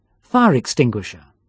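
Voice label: "Fire extinguisher", English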